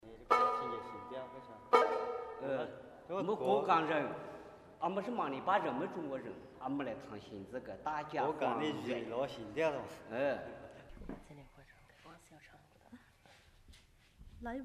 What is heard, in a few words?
Malipa talk